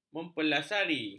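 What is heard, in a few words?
"mempelasari", Malay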